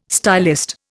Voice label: "stylist", Indian